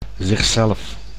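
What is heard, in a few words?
"zich zelf", Dutch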